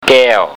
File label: "gaeo", Thai